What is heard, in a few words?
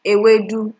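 "ewedu", Nigerian Pidgin, female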